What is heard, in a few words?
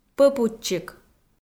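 "пӧпутчик", Komi, female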